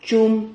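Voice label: "chum", Spanish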